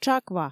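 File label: "тшаква", Komi, female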